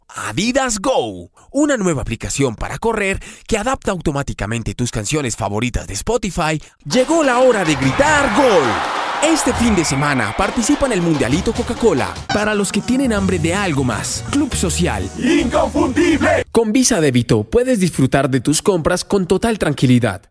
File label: Spanish Latin